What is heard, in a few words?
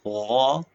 short voyels arabic alphabet